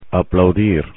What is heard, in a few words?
"aplaudir", spanish; infinitive